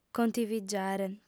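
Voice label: "contivigiare", female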